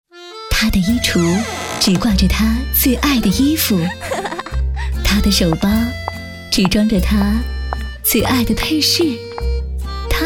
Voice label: 女 电台包装; 河南濮阳炫动107

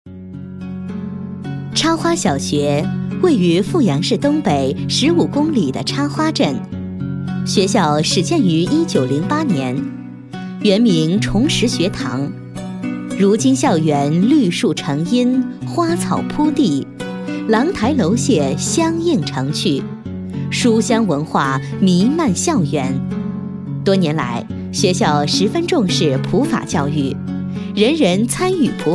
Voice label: 女; 插花小学

